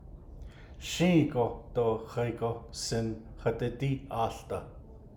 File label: navajo; In the summer you will not start singing winter